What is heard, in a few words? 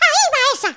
"Dragon punch", Spanish